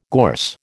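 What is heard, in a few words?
"gorse", American English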